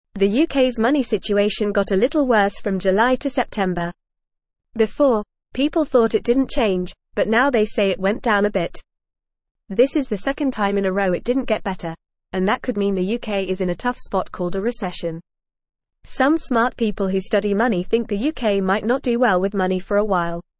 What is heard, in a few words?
English Lower Intermediate FAST Reading; UK Money Goes Down Worries About Jobs; English Newsroom